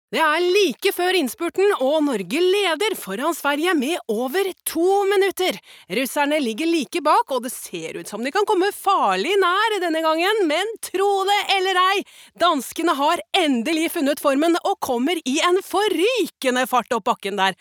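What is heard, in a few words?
Norwegian dub commentator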